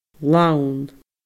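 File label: "lann", Scottish Gaelic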